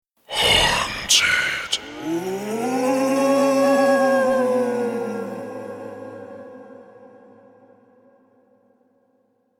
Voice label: Haunted Spoken, The word haunted spoken in deep ominous voice with wailing ghosts.; Evil Voices